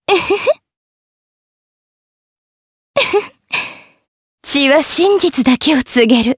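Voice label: vox trs Japanese; kingsraid; jp; Lewsia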